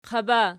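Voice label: "qaba" nominative, Archi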